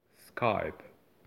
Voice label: "skype", English